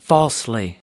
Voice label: "falsely", us